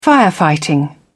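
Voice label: "firefighting", British English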